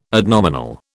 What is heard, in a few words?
"adnominal", British